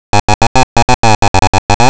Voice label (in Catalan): Català masculí sense estudis superiors; Novelda